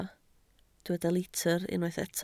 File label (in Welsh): "litr", Welsh